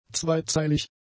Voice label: "zweizeilig", German; adjectives